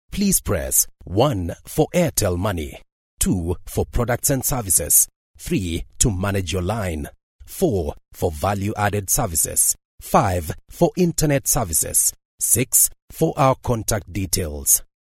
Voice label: AIRTEL IVR MAIN